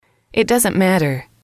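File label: it doesnt matter, English, female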